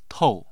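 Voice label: "tou4", Mandarin Chinese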